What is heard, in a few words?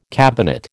"cabinet", American